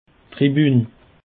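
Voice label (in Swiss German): "tribun", Bas Rhin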